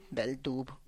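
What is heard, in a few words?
"beldub", Tlacochahuaya Zapotec; maguey Worm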